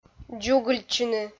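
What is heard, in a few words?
"дзугыльтчыны", Komi, female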